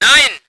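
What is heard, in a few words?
voice german vehicle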